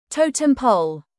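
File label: "totem pole" British English; Time, history; Thời gian, lịch sử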